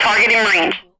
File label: female military radio voice target in range